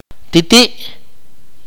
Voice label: "here", Akateko